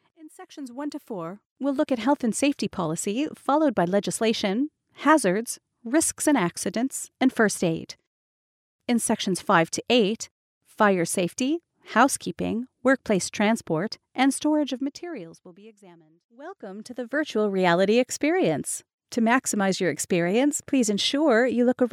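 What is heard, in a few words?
English American, female, voice over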